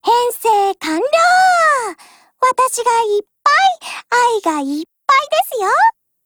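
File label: COMBINE, Japanese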